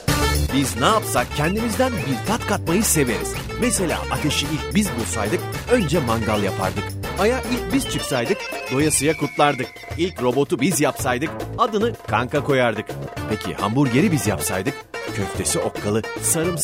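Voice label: Turkish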